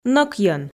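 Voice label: "нӧкйӧн", Komi, female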